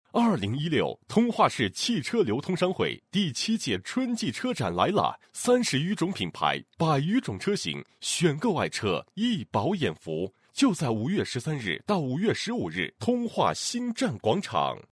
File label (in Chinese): 男 广告 春季车展, 国语男声配音 年轻磁性